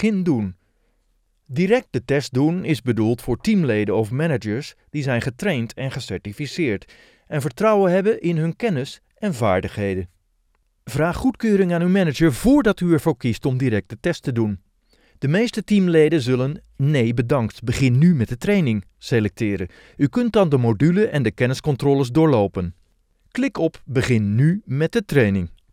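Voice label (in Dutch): Non-broadcast E-learning, Voice-over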